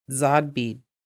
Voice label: vegetables, Lushootseed

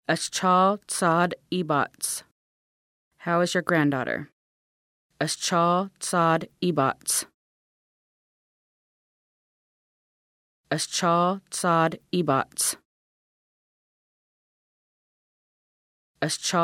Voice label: how is your granddaughter, Lushootseed; ʔəsčal tsi adʔibac

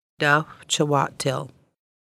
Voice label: education, Lushootseed

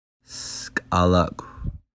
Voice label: circle, Lushootseed

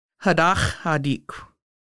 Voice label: Vest, Lushootseed; first salmon ceremony

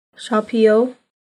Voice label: Mushroom, Lushootseed

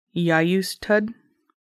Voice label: tools, Lushootseed

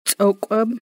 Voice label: "skin", Lushootseed

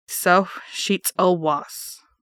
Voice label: "December", Lushootseed

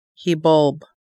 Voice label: hibulb, Lushootseed

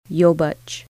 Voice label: king salmon, Lushootseed

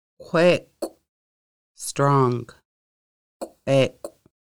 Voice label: strong, Lushootseed

